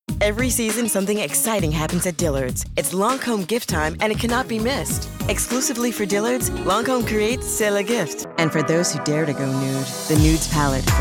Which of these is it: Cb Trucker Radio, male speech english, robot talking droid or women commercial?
women commercial